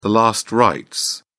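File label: "last rites", British English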